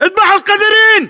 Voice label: order action boost, battle chatter, english